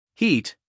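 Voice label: "heat", American English, male; everyone-can-use-english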